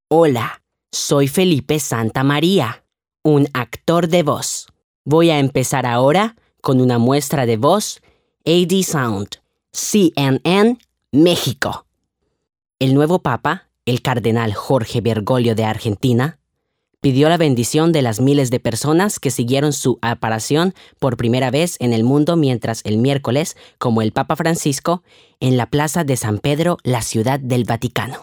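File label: Spanish EU, male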